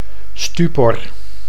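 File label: "stupor", Dutch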